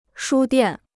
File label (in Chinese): "书店", Chinese